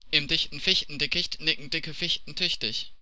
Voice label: German tongue twister